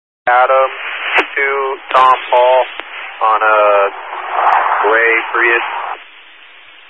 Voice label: Highway Patrol, Phoenix Metro East Area, scanner

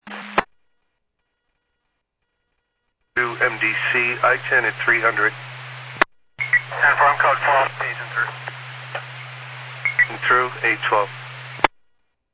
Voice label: Medevac, scanner; AirEvac PhxT

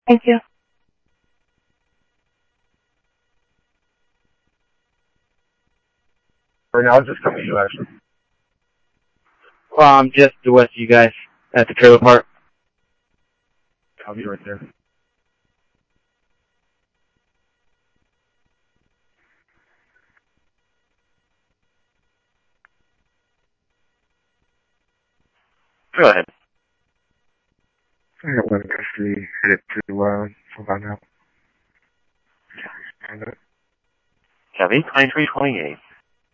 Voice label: Sheriff, scanner audio

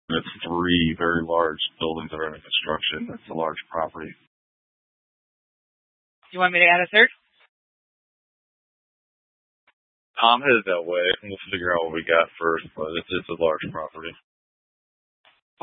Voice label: Scottsdale Police, Simulcast

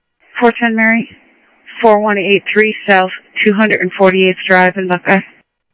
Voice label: Sheriff Central, scanner audio trunk

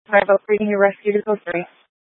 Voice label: Fire Dispatch

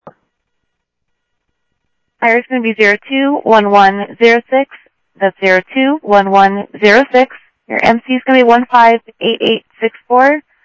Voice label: Info Sheriff Common, scanner audio trunk

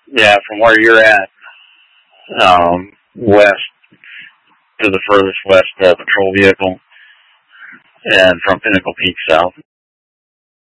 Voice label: Mutual Aid-Intersystem Interop B, scanner

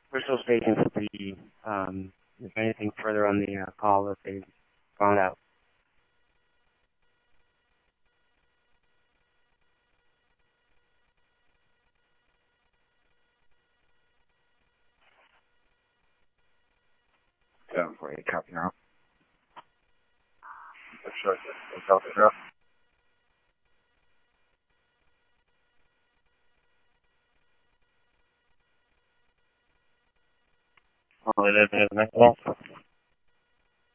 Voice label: Phoenix Fire, scanner audio; H-Scottsdale; PHXFD K7

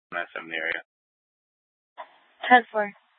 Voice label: Scottsdale PD Sth Dispatch, scanner

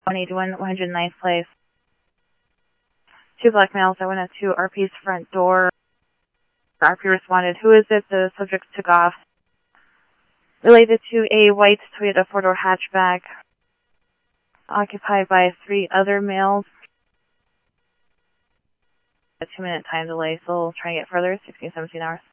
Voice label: Police, scanner audio

